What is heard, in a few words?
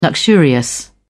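"luxurious", British English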